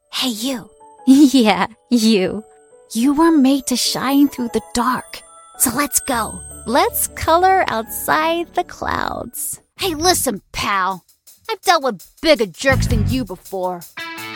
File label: english international animation, new zealand english